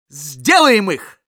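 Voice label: battlecry, Russian; "Сделаем их!"; Scout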